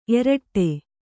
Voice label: "ಏರಿಡು", Kannada

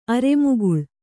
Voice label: "ಅರೆಮುಗುಳ್", Kannada

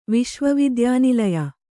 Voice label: "ವಿಶ್ವವಿದ್ಯಾನಿಲಯ", kannada

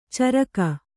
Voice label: "ಚುರುಕ", Kannada

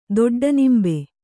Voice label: "ದೊಡ್ಡನಿಂಬೆ", Kannada

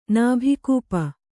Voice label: "ನಾಭಿಕೂಪ", Kannada

